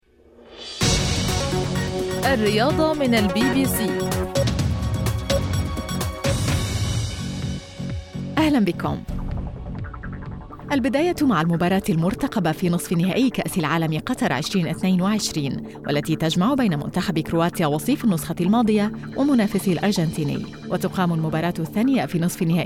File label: bbc arabic sports